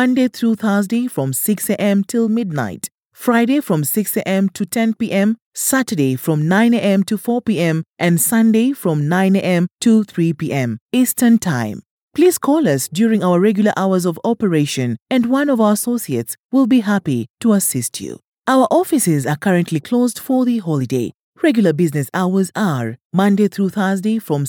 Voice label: female swahili english with african accent ivr; devine voices